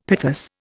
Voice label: "pithos", Indian; Dictionary